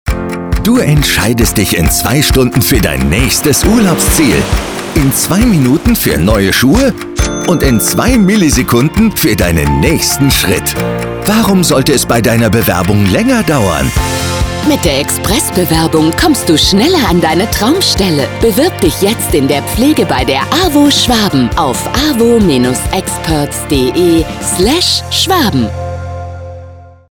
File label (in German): Radiospot; AWO Experts; Schwaben